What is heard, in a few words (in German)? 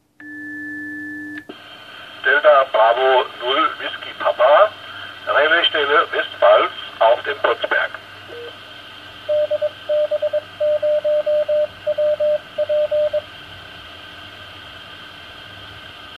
Sprachansage